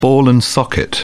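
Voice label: "ball-and-socket joint", British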